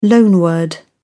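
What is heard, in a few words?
"loanword", English, British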